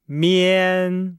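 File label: "mian", Chinese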